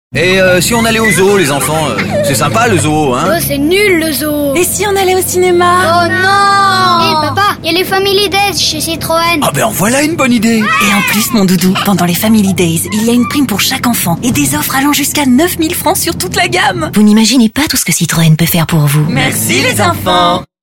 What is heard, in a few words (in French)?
radio pub spot